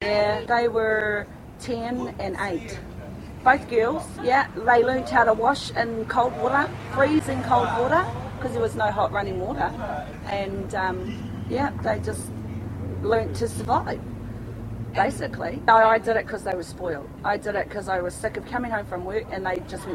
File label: New Zealand Maouri Female Middle Age